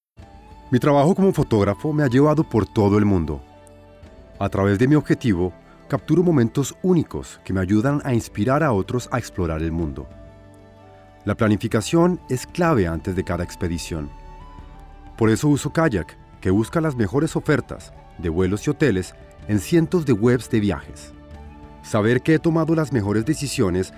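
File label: male latin american colombian comercial; ideasonora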